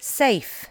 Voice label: "safe" english

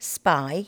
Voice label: "spy" english

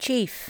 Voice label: "chief", english

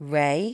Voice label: "ray", L2 English

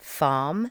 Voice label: "farm", english

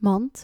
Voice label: "mand", Dutch

